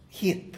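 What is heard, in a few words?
"squash", Tlacolula Zapotec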